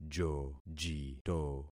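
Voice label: "jojito", concatenated syllables; Affixing experiment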